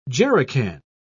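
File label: "jerrican", British English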